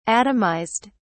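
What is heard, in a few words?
"atomised", English, uk British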